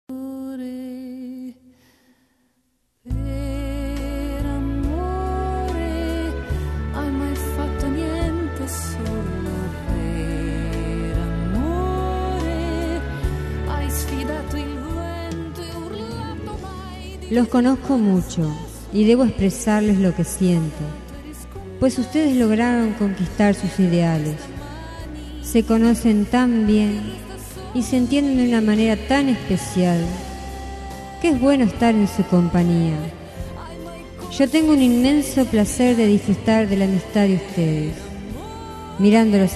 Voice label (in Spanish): Felicitac. Por EL Matrimonio Feminino, Espanhol; Variadas em Espanhol